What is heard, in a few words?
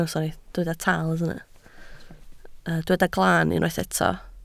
"glaan", Welsh